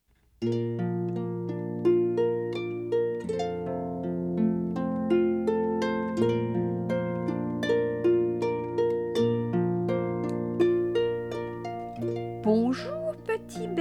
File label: French